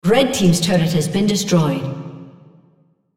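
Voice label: On Turret Die red female spectator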